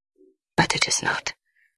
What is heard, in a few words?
Voice Acting, es